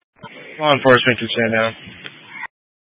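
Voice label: Fire Dispatch, scanner audio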